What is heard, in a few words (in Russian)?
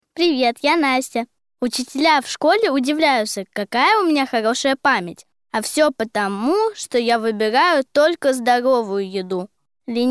reklama